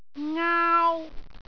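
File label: "ngaau1", Cantonese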